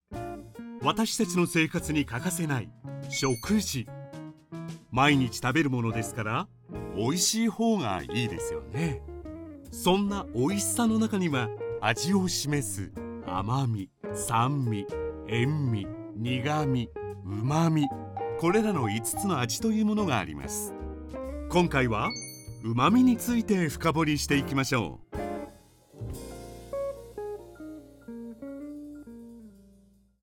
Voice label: japanese tv shows

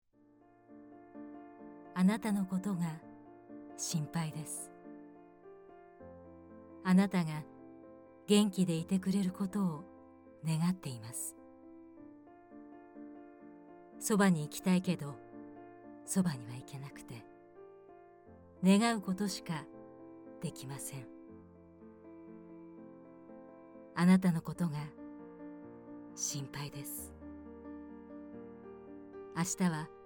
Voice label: japanese documentaries

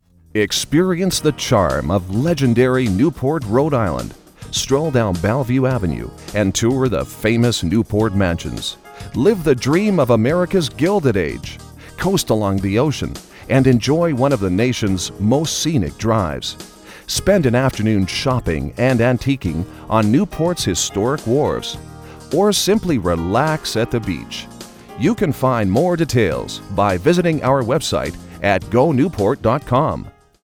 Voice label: english canadian real estate

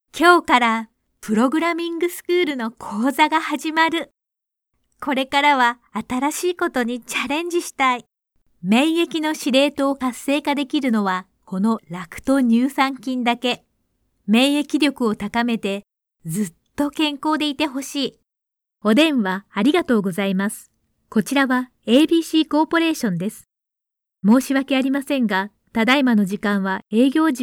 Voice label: japanese commercial; Japanisch; professioneller japanischer Muttersprachler und Synchronsprecher, der vollen Klang mit warmen T

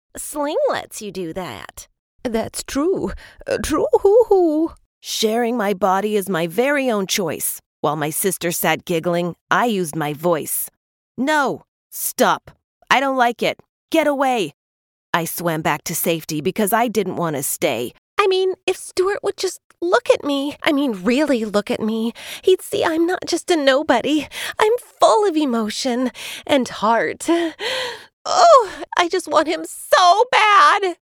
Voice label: english canadian animation

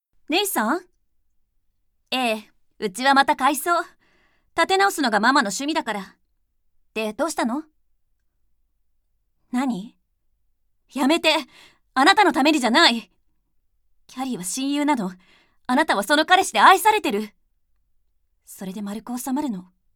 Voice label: japanese animation

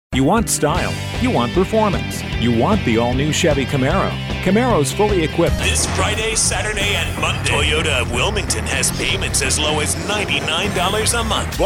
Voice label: English American others

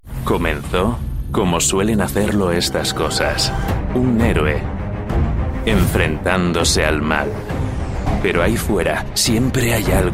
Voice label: Spanish movie trailers

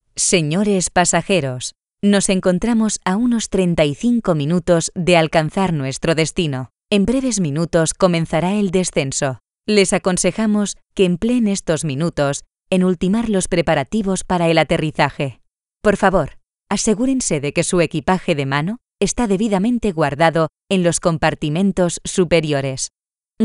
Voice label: Spanish; Israir

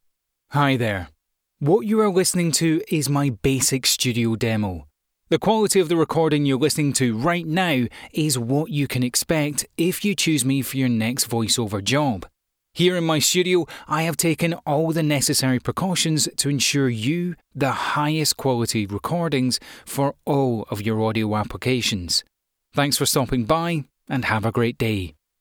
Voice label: english scottish

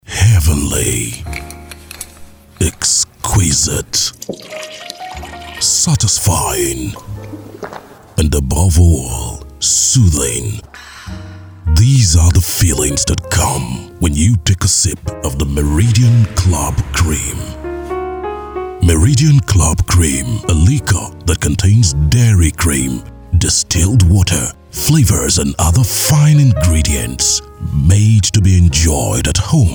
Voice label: english african commercial; Englisch (afrikanisch); Nigeria; tief klingender afrikanischer Englischsprecher

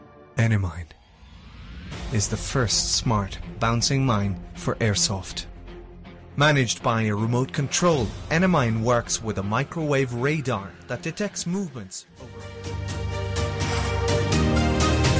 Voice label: english irish commercial

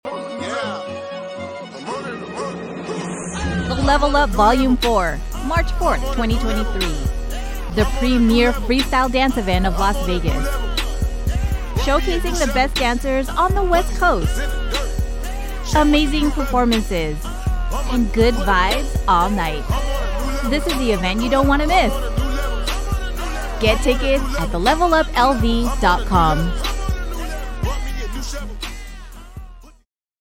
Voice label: english american promos; first-generation, Filipina, Asian-American professional voice

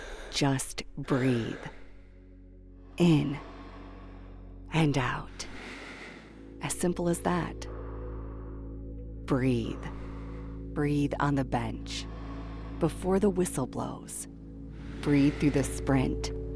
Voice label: english american others

